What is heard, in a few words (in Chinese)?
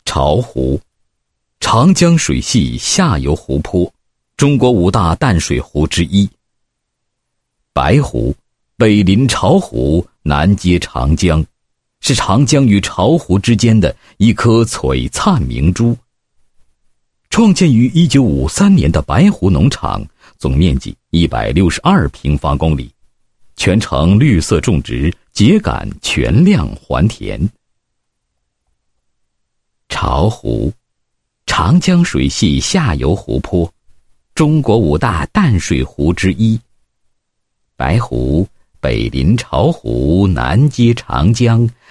男 地区宣传, 配音案例; 大美白湖